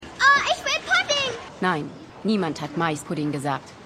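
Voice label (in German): Mädchen Mutter; Schmigadoon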